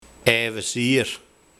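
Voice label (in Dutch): "evezier", dialect; Gelijk, hetzelfde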